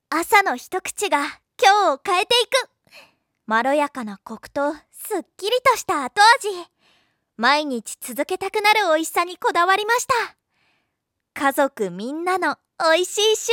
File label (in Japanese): CMナレーション（ヨーグルト）; jtb-ent-voice